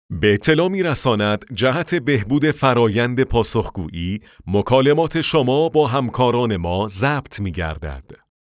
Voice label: men